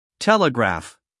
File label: "telegraph", us male